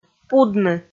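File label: "пудны", Komi, female; kpv audio dict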